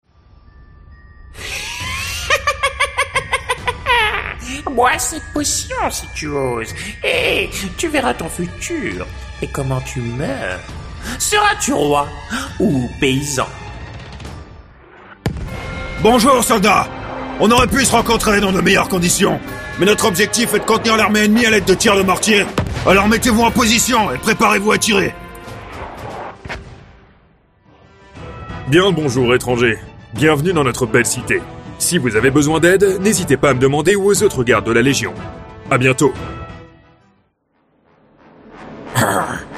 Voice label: french video games